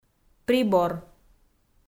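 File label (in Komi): "прибор" Komi, female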